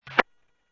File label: Medevac, scanner; NativeAirAmb